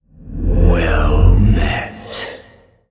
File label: Male Old God